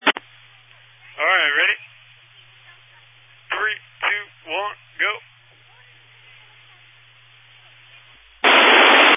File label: Family Radio Service (FRS)